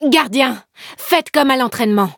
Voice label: battle, fr; Cookie Run

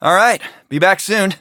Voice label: eng match start; Cookie Run; ice pop

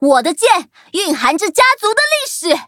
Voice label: loading, zh-hant; Cookie Run

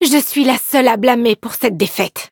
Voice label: defeat, fr; cookie run

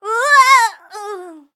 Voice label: hit, en

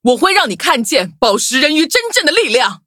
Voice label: loading, zh-hant; cookie run

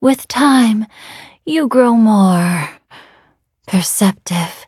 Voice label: levelup, en; Cookie Run

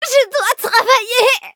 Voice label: hit fr; cookie run

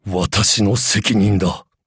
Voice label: defeat, ja; Cookie Run

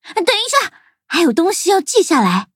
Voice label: loading, zh-hant; Cookie Run

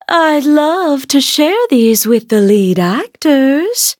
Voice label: levelup, en; cookierunwiki

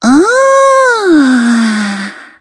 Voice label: loading, en

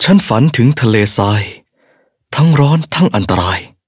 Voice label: level up, th; cookie run

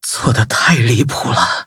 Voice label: defeat, zh-hant; cookie run

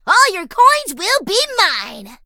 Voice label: touch, en; cookie run

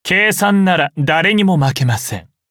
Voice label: levelup ja; cookierunwiki

